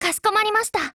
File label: PHRASE JP; girlsfrontline